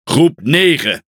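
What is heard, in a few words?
Speech, Dutch; Pilots Bomber; World Of Warships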